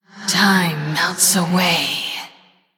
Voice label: Female Human Thinking; en